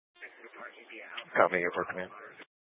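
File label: Incident Tactical 1, Scanner audio recording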